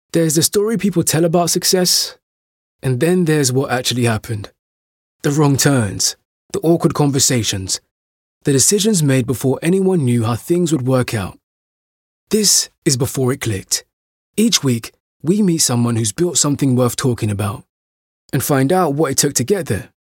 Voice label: podcast branding